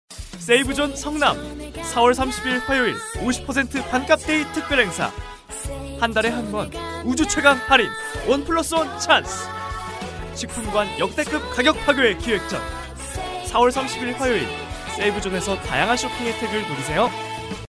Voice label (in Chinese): 韩语男声